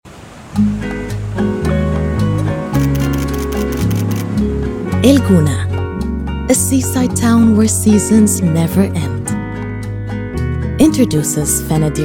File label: English Commercial